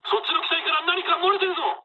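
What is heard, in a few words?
Japanese tank damaged mood low; War Thunder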